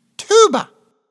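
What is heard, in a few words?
"TUBA", English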